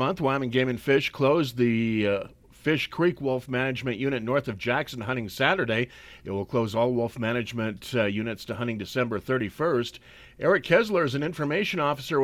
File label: radio news; wyoming